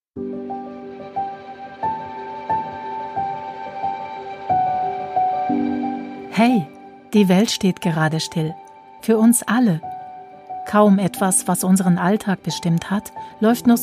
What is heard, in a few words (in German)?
werbung zuhause bleiben